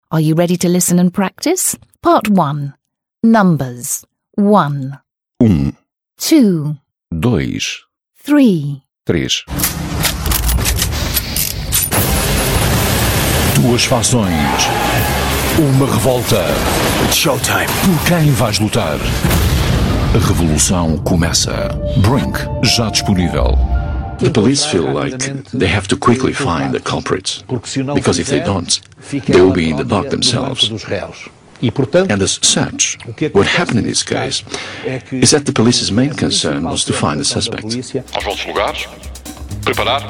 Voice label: male portuguese english and portuguese compilation; portuguese european